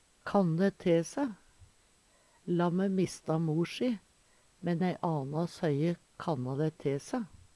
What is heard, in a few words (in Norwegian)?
kanne te se, Numedalsmål